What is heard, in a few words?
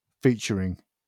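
"featuring" English; pronunciations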